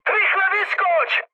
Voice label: bailout, speech cze, mood high; War Thunder